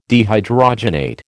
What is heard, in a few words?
"dehydrogenate", American